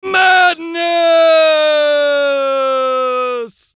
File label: VOICE COMICAL; Madness; Funny Sound; Radio Voice; Blastwave